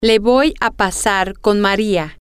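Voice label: im going to transfer you to X, Spanish, female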